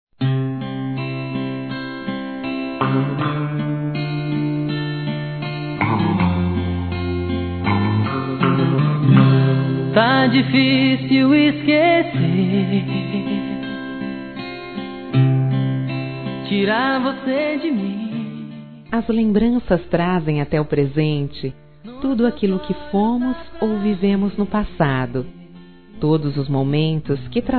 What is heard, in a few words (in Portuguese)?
Romântica suave; Telemensagens; Saudades